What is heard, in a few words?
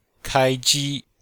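"kai1ji1", Chinese; Cours de Chinois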